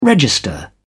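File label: "register", British English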